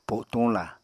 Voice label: "po’tuñla", Apatani; [poɁtũla] adv. squatting

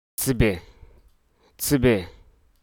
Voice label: "grass", Hruso

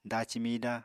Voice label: "daci mida", Apatani

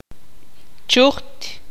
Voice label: tzutujil; chinche

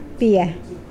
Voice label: "coconut milk", Mokilese

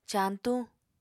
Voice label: "cañtuñ", Apatani

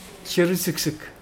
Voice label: small baby, Mokilese

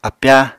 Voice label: "apyáa", Apatani

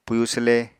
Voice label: "pwyu swle", Apatani

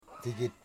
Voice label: "seat", Wamut

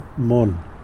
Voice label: "mon", tlacolula; doll

